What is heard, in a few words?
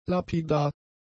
"lapidar", German; adjectives